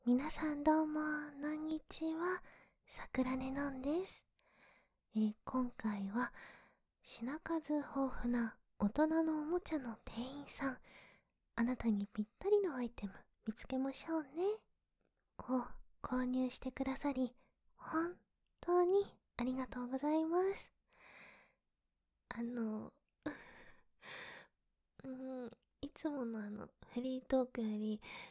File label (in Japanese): フリートーク